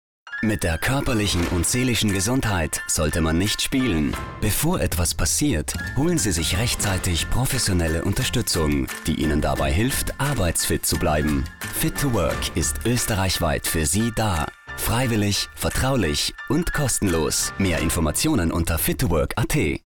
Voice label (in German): Mann